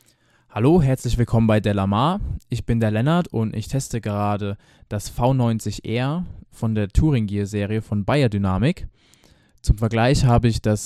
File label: beyerdynamic TG-V70d